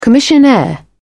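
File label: "commissionaire", English, British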